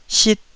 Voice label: "sit1", taiwanese Syllables

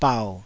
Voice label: "bau3", taiwanese syllables; 台語音節發音檔案

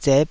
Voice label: "zep5", taiwanese syllables

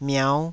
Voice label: "miau5", Taiwanese

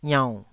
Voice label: "iaunn3", taiwanese syllables

